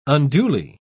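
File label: "unduly", British English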